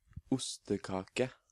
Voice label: "Ostekake", Norwegian